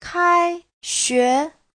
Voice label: "kai1xue2", chinese